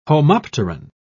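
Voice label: "homopteran", British English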